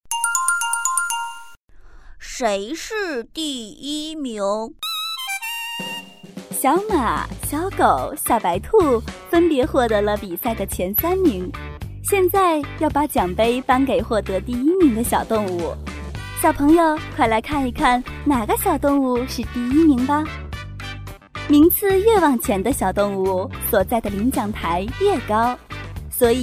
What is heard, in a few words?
Kids Book Reading